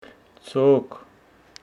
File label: Homshetsi noon